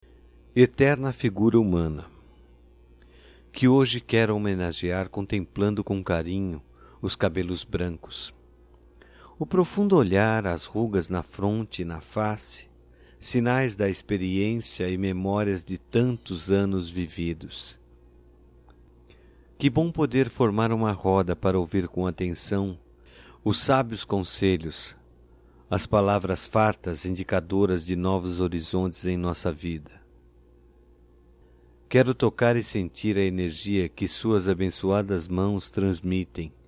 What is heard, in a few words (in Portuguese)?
DIA DA AVÓ - M, Telemensagens, Só Voz; DATAS COMEMORATIVAS; masc